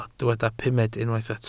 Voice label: "pumed", welsh